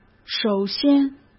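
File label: "首先", Mandarin Chinese; hsk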